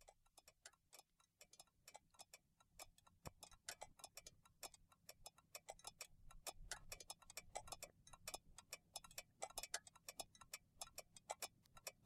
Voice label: narrative